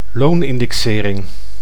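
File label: "loonindexering", Dutch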